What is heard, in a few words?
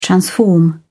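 "transform", British English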